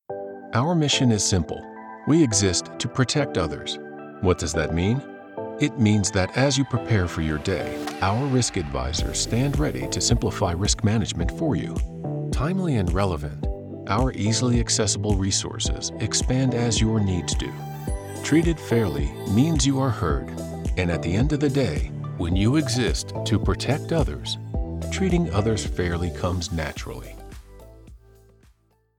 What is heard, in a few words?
Medical Product explainer Voiceover w music